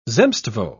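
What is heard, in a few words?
"zemstvo", British English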